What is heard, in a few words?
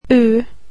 "u", swedish alphabet